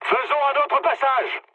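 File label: bomb failure, mood high, French; War Thunder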